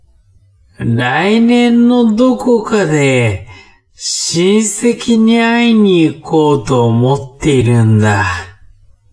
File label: man